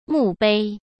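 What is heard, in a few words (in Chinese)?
"墓碑", Mandarin Chinese; hsk; chinese flashcards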